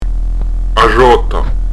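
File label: "a sgiotta", Prononciation du corse; a Ʒ'òta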